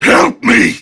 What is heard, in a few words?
Death Prime Grineer Male; Tau; Battle Barks